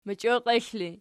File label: "mochioklexl", Archi